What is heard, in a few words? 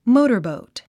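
"motorboat", English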